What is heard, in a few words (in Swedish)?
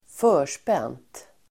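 "förspänt", Swedish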